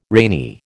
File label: "rainy", American